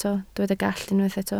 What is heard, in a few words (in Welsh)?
"gallt", Welsh